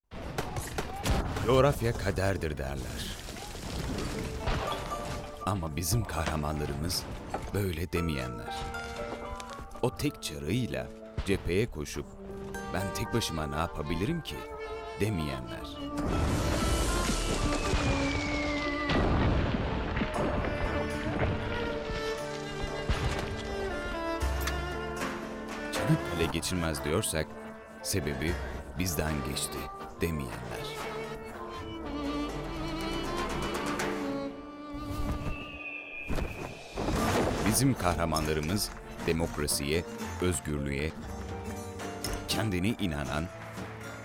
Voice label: reklam; boyner

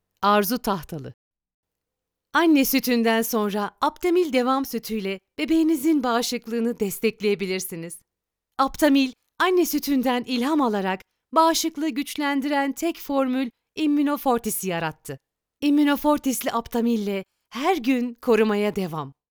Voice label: reklam

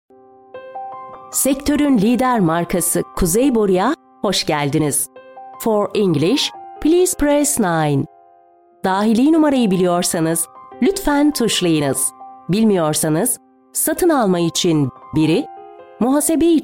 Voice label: santral seslendirme; seslendirme ajansi

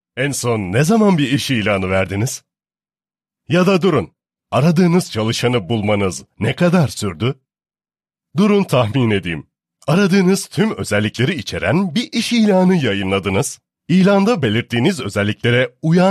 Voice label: tanitim filmi metin

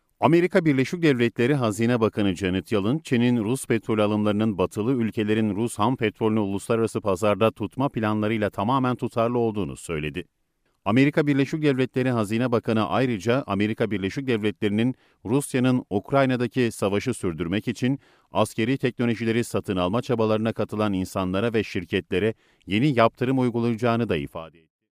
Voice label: haber ses, Turkish, Male

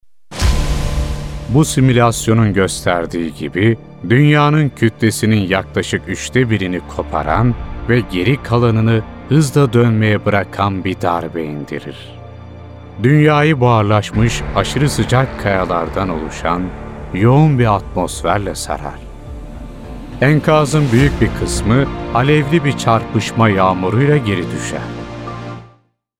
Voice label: belgesel anlatimi

